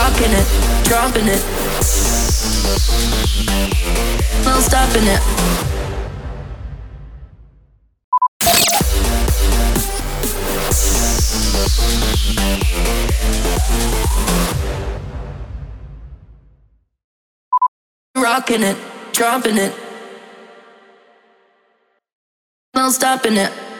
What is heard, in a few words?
SWEEPER COMMERCIAL